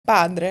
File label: "Padre", Italian; lesson 6 articles plural